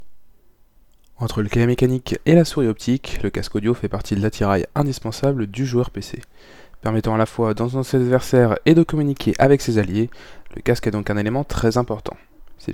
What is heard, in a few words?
hyperx cloud III soundtest